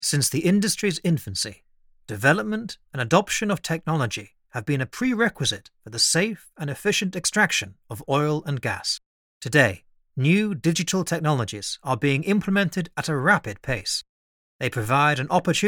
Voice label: Oil Corporate

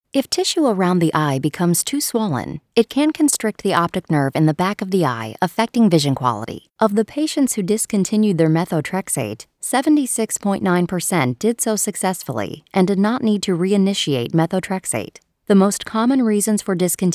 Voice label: Pharma Sample Reads

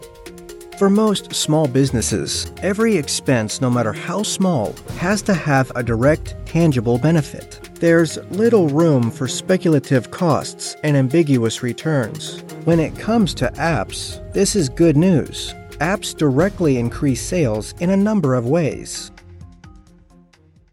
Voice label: Product Promo about Apps

